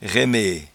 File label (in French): "raimee", patois jura